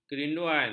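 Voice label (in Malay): "kerinduan", Malay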